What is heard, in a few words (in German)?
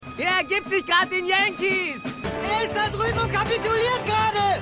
Junger Toter Soldat Trailer